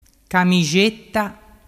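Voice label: "camixetta", Vernazzese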